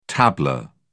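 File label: "tabla", British English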